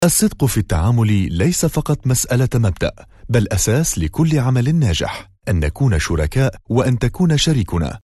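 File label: Lebanese corporate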